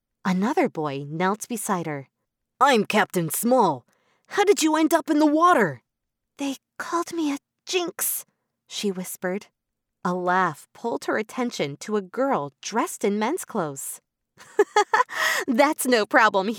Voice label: English American audiobooks